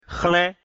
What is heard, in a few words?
"hlen", mina